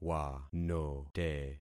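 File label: "wanote", concatenated syllables; Affixing experiment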